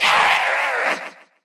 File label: hurt evil; nita